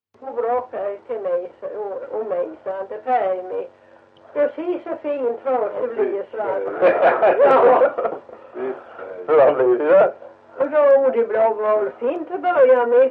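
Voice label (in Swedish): Ventlinge Öland, dialekter; Folkminnen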